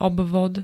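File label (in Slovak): "obvod", Slovak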